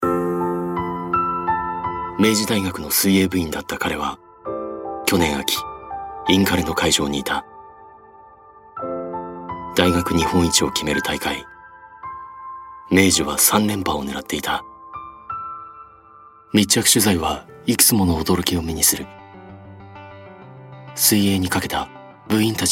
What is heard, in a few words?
japanese documentaries